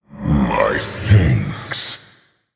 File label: Male Orc Thanks